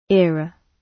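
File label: "era", British English; Time, history; Thời gian, lịch sử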